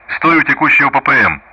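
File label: Speech Sound Russian; anchor steerpoint; DCS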